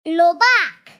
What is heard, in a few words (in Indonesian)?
Suara Ceria Anak Lobaak!